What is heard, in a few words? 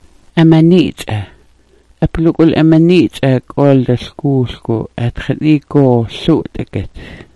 "amani'its'a", smalgyax